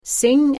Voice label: "sing", Pennsylvania Dutch

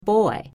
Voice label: "Boi", Pennsylvania Dutch; oi in oil

